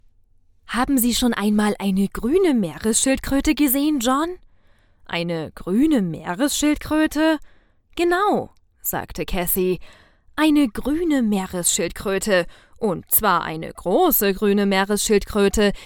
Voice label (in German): Hörbuch Schildkröte